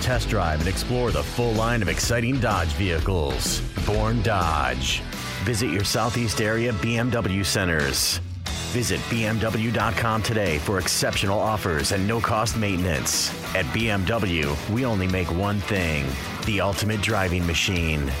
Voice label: Auto Dealer Male, commercial voiceover